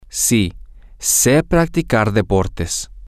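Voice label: yes I know how to practice sports, Spanish, male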